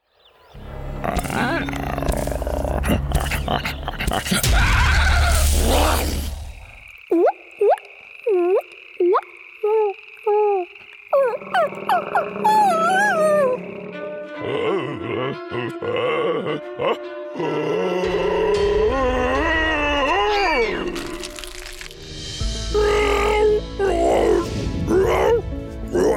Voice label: Creature